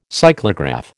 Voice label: "cyclograph", American